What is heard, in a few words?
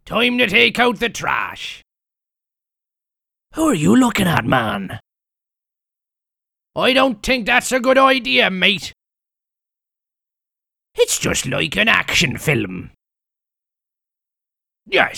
Character Voice; UT4